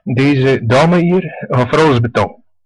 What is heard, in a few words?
pay, West Flemish